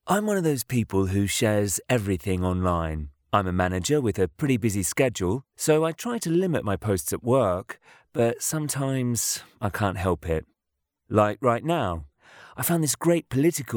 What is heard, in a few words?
Conversational Real Person